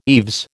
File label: "eaves", American